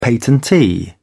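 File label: "patentee", British English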